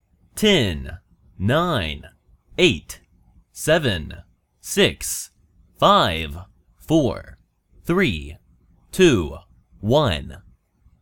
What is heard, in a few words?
Countdown From 10 Male Voice